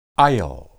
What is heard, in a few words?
"ayil", Hebrew